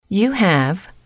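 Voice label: you have formal, female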